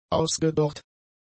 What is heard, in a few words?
"ausgedorrt", German; adjectives